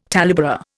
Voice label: "talebearer", Indian

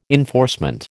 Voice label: "enforcement", American

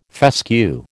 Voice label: "fescue", American

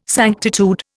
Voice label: "sanctitude", Indian

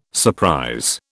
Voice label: "surprise", British

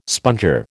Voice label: "sponger", American

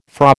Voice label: "Fra", American

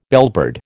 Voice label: "bellbird", American

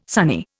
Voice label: "sunny", Indian

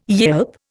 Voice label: "yelp", Indian

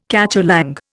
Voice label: "cacholong", Indian

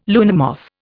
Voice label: "luna moth", Indian

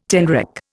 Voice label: "tenrec", Indian

